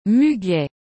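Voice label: "muguet", French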